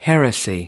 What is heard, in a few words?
"heresy", us